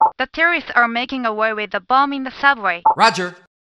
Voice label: voice rage